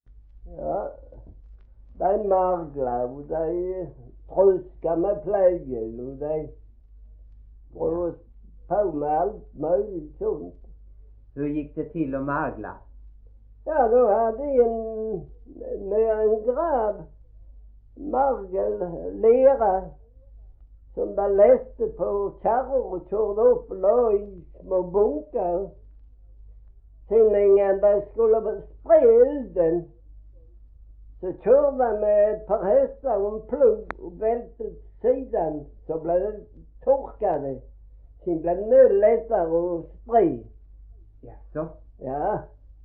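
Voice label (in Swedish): Gråmanstorp Skåne, dialekter; Folkminnen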